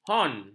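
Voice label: "hon", Malay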